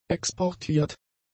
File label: "exportiert", German; adjectives